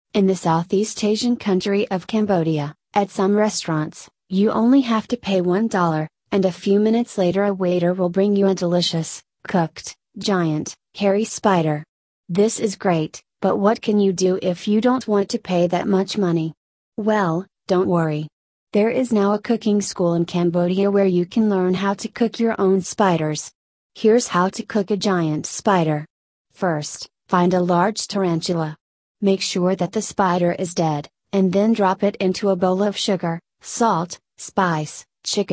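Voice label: American; cook spiders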